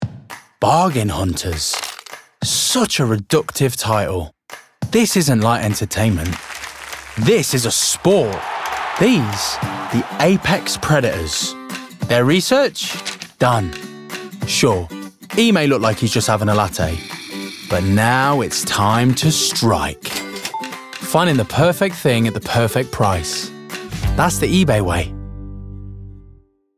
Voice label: Ad; EBAY COMMERCIAL